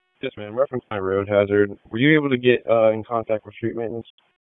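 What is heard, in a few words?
Police Southwest District Dispatch, p25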